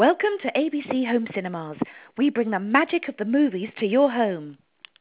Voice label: Bubbly